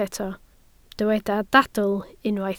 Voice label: "dadl", Welsh